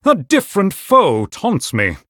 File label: Male Human ERROR TAUNT